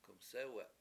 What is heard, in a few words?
"k7umsE7we7", washo; fire